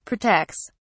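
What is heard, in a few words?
"protects", British English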